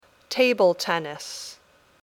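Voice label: "Table tennis", English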